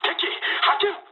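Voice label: Japanese enemy detected mood med; War Thunder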